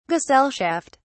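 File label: "gesellschaft", British English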